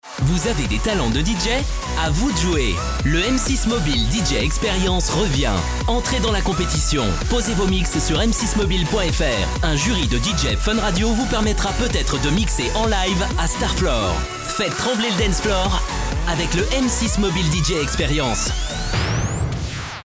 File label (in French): spot tv mobile dj experience, publicite